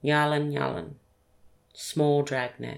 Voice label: "nyelin-nyelin" small drag net